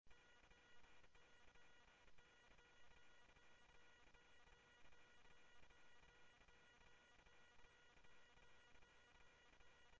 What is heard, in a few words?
Tempe Police, scanner; SimulcastF-Tempe; TEMPE PD A04